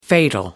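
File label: "fatal", English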